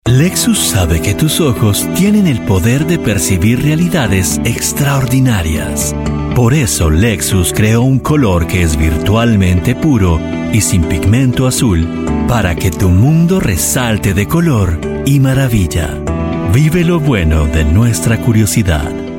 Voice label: spanish voice over male commercial